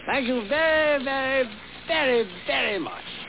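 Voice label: "Thank you very much", speech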